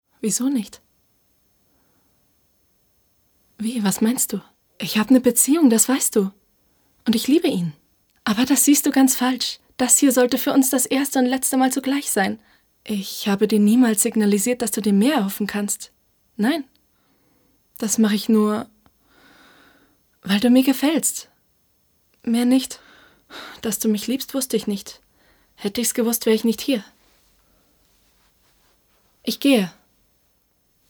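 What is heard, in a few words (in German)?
Real Synchron Komödie Zärtlich; Real Synchron Franz Komodie Zartlich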